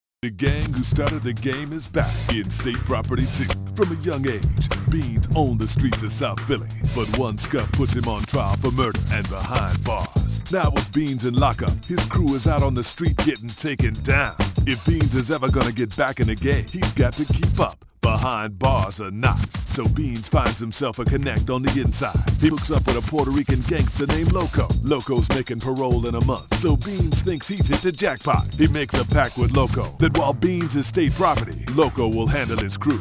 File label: men trailers